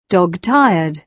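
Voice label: "dog-tired", British English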